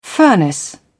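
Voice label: "furnace", British English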